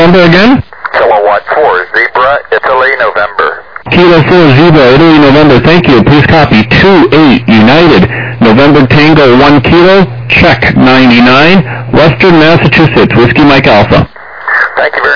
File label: USB 21MHz